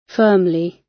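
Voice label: "firmly", British English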